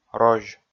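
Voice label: "розь", Komi, male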